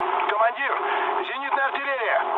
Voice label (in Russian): damaged aa, mood low, speech ru; War Thunder